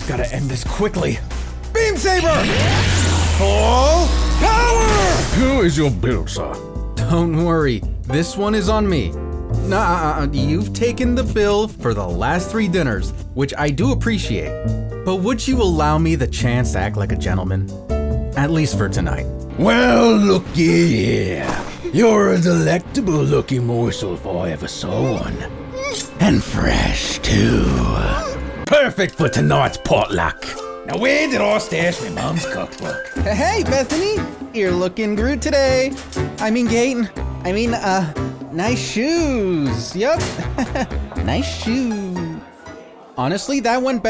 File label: Animation